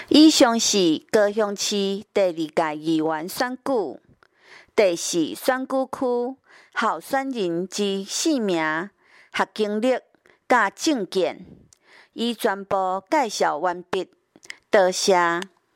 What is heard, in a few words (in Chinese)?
台語; 第四選區